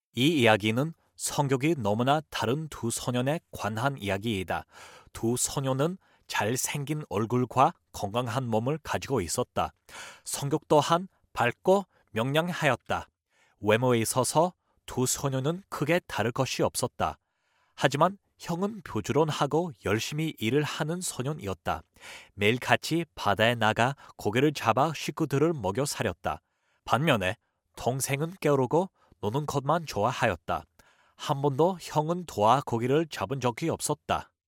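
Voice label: Korean, Narration; GoLocalise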